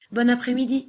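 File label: pronunciation "bonne apres-midi", French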